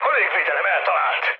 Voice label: damaged aa, mood high, speech hun; War Thunder

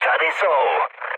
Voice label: enemy detected, speech cze, mood high

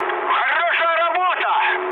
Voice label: player killed enemy, mood med, speech ru

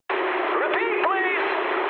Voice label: eng chat, speech eng

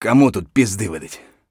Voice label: mutter; Escape from Tarkov

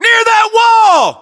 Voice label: US landmark near wall, battlechatter, english

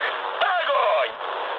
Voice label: attack position, mood high, speech ru

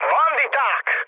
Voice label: enemy detected, speech hun; War Thunder

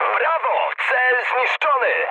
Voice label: bomb success mood high, speech pol; War Thunder

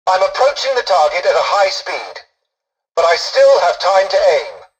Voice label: tutorial dialogue, english; War Thunder

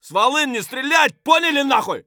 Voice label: silence; Escape from Tarkov; scav5

